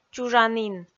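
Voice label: "чужанін", Komi-Zyrian, female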